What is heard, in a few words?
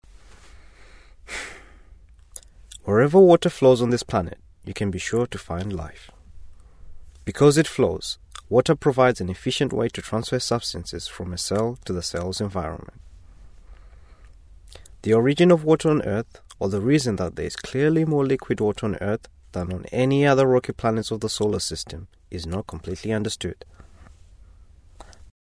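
male, raw audio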